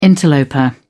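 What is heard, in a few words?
"interloper", British English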